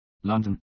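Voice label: "London", English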